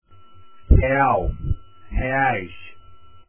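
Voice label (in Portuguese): "real" Portuguese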